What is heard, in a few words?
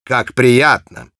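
Spy cheers, russian; "Как приятно."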